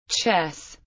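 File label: "Chess", English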